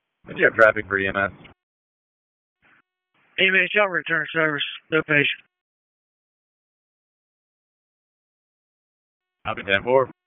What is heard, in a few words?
PS TAC, p25; wake p25